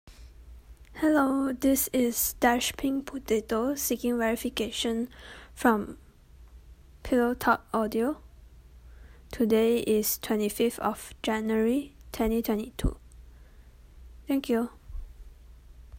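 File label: Female pillowtalk verification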